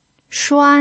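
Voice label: "shuan", Mandarin Chinese pinyin, first tone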